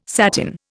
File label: "satin", Indian